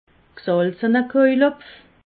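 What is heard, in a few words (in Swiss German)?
"salzener kojelhopf", Alsatian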